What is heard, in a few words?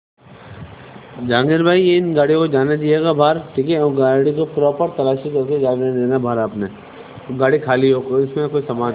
Voice message